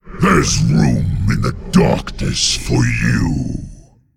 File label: Male Jailer Play